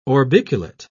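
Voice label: "orbiculate", English, British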